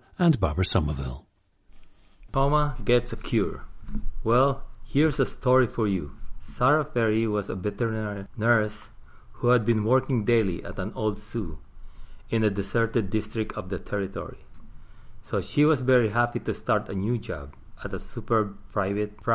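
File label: philippines, dialects of English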